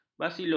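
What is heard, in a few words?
"basilus", Malay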